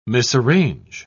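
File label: "misarrange", British English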